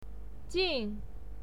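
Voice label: "jin", Mandarin Chinese pinyin, fourth tone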